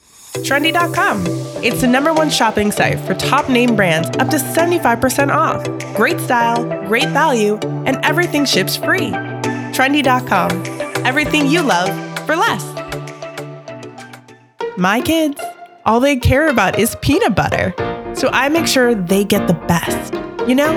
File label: Voice Over Compilation